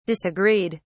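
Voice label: "disagreed", British English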